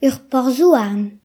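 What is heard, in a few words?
"train station", Breton; gcompris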